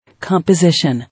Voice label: "Composition", English

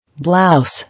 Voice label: "Blouse", English

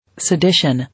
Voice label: "Sedition", English

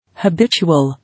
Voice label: "Habitual", English

